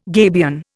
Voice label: "gabion", Indian